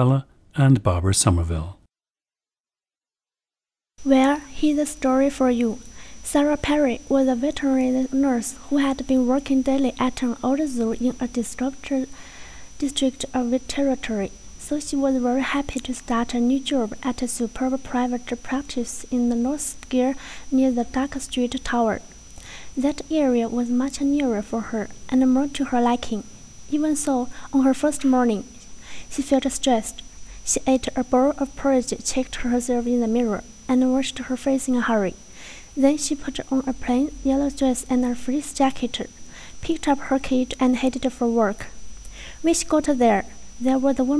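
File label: jiangsu, dialects of English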